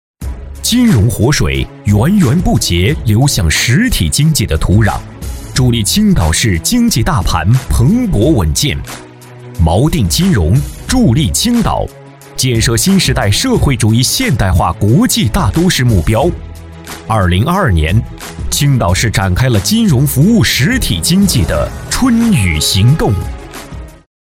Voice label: 男 宣传片; 工行青岛分行

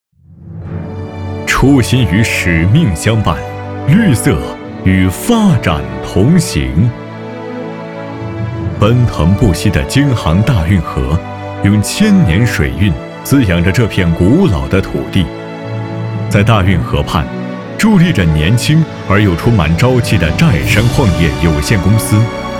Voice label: 男,城市形象; 初心与使命相伴

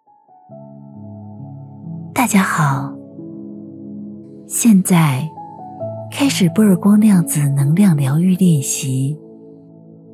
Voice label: 女 冥想瑜伽 冥想练习; 七穴位冥想练习

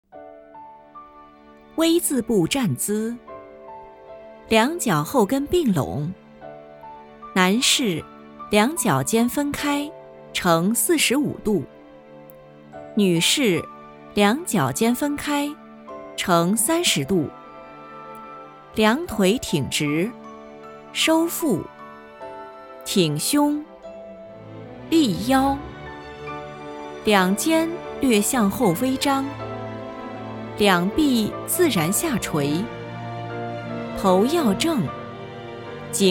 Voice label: 课件 练功站姿

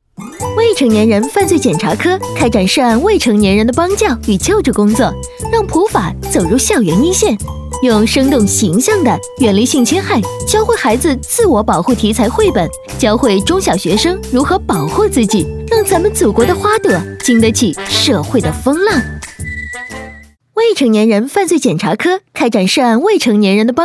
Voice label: 女 MG 旁白 清新甜美配音

